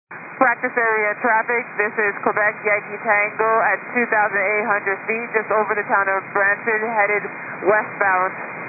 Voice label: 122.925 MHz; scanner; radio